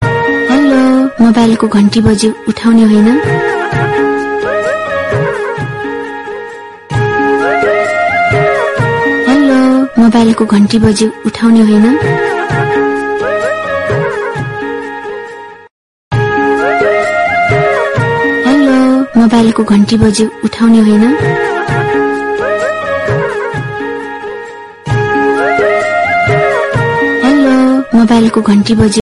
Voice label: mobile ki ghanti baji funny tone ringtone; funny ringtones